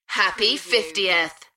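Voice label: UK female, DJ vocals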